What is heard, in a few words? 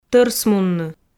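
"тӧрсмунны" Komi, female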